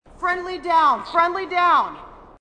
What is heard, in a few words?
female marine; marine sassy; h2dialogue